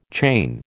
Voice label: "chain", American

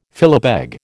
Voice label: "philabeg", American

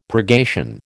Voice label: "purgation", American

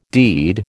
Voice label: "deed", American

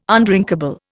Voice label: "undrinkable", Indian

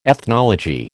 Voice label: "ethnology", American

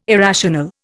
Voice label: "irrational", Indian

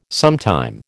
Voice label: "sometime", American

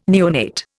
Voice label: "neonate", Indian

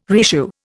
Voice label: "reissue", Indian

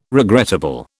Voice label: "regrettable", British

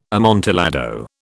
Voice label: "amontillado", British

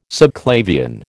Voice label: "subclavian", American

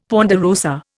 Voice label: "ponderosa", Indian; Dictionary

